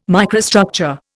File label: "microstructure", Indian